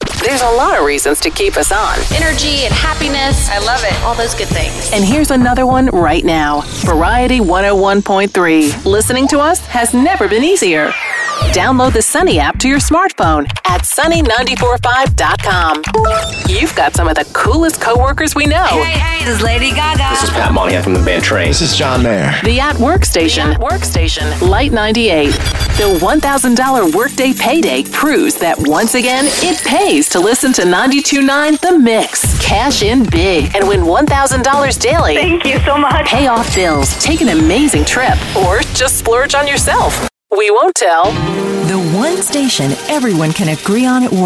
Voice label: AC Radio Imaging